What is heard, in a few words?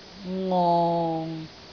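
"ngong6", Cantonese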